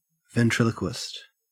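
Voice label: "ventriloquist", Australian English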